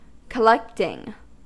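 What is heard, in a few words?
"collecting", US English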